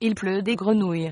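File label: rain, French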